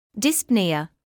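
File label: "dyspnoea", British English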